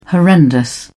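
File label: "horrendous", British English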